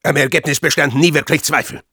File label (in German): Spy cheers, de